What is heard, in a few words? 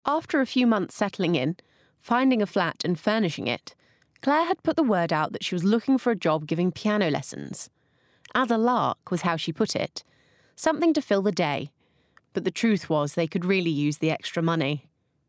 Adult Bookread